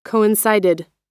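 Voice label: "coincided", American English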